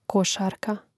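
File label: "košarka", Croatian; Mrežnik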